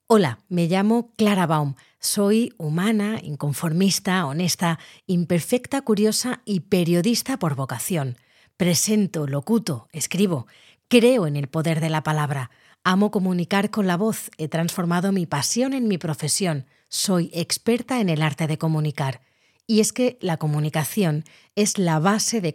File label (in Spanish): Locucion